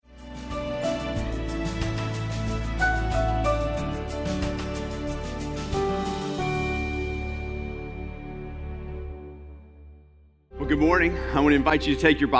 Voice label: Sermon English